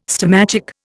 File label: "stomachic", Indian